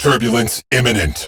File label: Combat Storm Gust Warn, Cephalon, en; Warframe; Railjack; Corpus